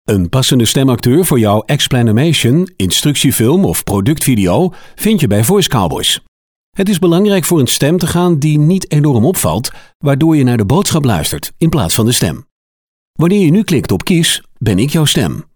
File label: explanimation